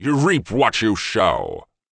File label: Forest Protector Eerie